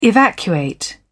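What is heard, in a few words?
"evacuate", British English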